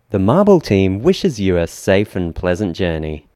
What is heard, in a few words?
American English; marble